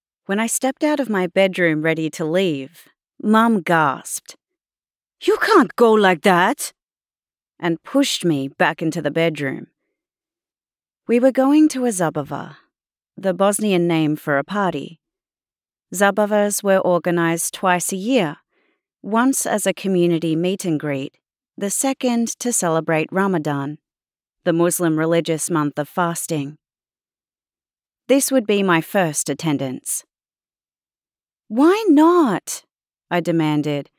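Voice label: Audiobook Australian Young Adult; australian voice over; audiobook narration; Sabihas Dilemma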